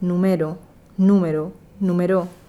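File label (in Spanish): "numero numero numero", Madrid; Minimaltriplette número, numero, numeró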